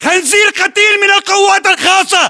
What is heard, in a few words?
inform kill firm infantry, battle chatter, english